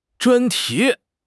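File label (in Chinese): "专题", Chinese; HSK6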